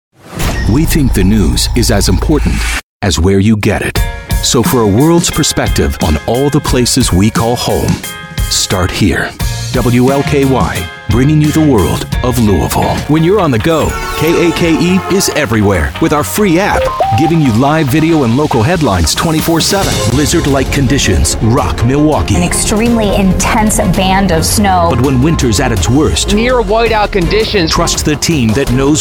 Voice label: men tv affiliate; Warm and cinematic with an honest Midwestern credibility

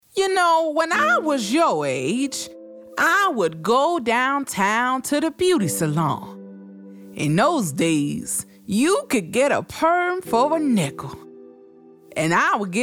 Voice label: women animation; Warm, versatile, and rich with emotion and depth. Everything from engaging conversational and urban tones to compelling character voices

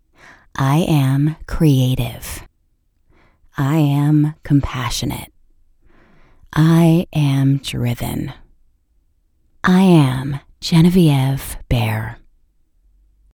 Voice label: women mini demo

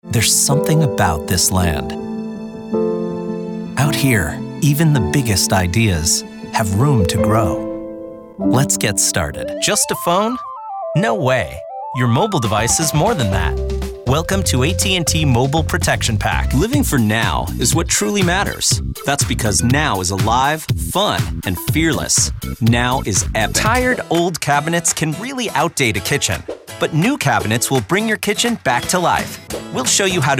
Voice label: men, narration

